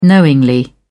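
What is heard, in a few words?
"knowingly", English, British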